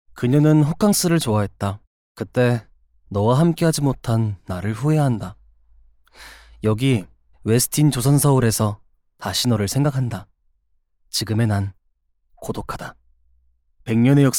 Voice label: KOREAN MALE